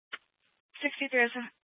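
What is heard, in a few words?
Sheriff Dispatch, scanner audio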